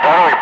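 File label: Russian loud; Ka-50; Wingman; DCS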